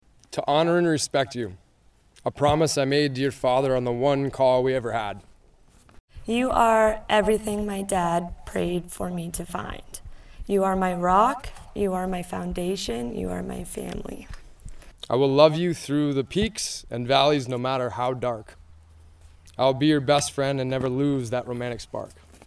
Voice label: Emotional Personalized Vows Summer Wedding; Curtis Farm